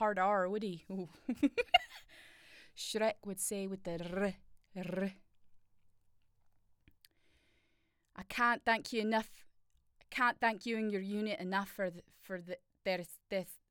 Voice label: Scottish vs Irish